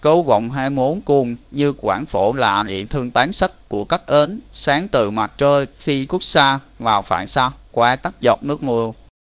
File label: speaker, vi VN; piper voices; vivos